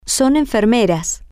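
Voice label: theyRosaAndJuanaAreNurses, Spanish, female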